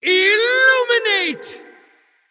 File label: female elemental attack